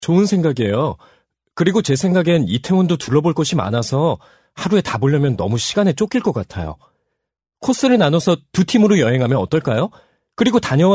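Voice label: Korean, male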